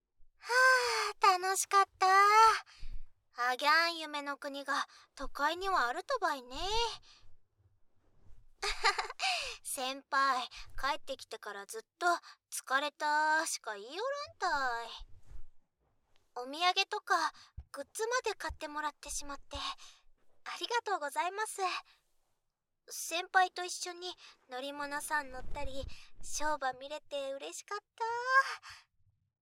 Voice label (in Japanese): お疲れ様の耳かきばい！（耳かき音量200%）, 九州娘, ダミーヘッド＆ダミーボディマイク使用; 九州娘とおしかけラブラブタイム！